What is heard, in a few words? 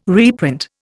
"reprint", Indian